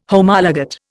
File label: "Homologate", Indian